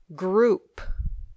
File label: "group", English